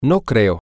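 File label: i dont think so, spanish, male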